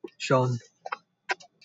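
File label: "shone", British English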